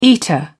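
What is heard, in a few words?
"eater", British English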